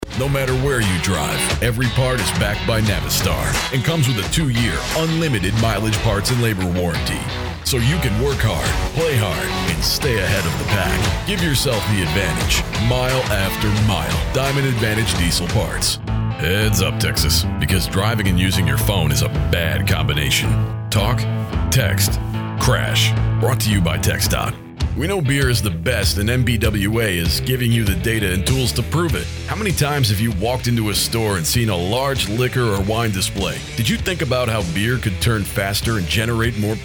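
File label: Everyman, Warm, approachable, dynamic voiceover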